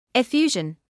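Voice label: "effusion", British English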